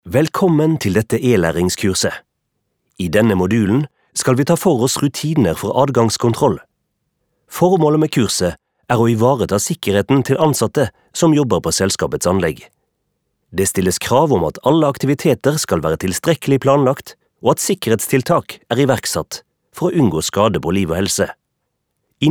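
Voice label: Norwegian e-learning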